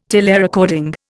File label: "telerecording", Indian